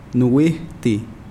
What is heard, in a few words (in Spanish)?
Nawat palabras estomago; pipil